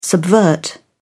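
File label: "subvert", British English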